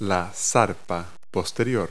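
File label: "hind paw", English words; Multi-Lingual Talking Picture Dictionary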